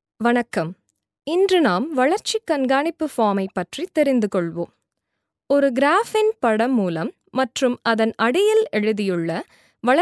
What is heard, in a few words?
Training Tamil